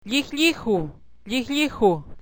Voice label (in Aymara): "lliju", Aymara